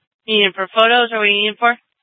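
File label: police dispatch, scanner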